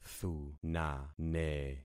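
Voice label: "thunane", concatenated syllables; Affixing experiment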